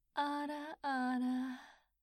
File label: ara ara mature anime woman